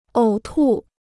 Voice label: "呕吐", Chinese

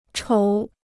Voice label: "瞅", Chinese

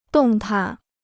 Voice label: "动弹", Chinese

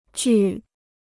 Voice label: "聚", Chinese

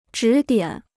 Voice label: "指点", Chinese

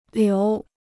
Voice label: "流", Chinese

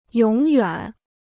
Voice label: "永远", Chinese

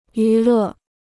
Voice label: "娱乐", Chinese

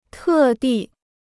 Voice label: "特地", Chinese

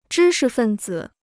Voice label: "知识分子", Chinese

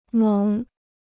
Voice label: "猛", Chinese

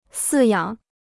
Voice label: "饲养", Chinese

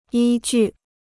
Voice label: "依据", Chinese

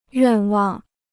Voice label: "愿望", Chinese

